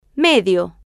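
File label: "medio", Spanish, female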